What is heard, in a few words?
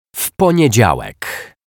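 "w Poniedzialek", Polish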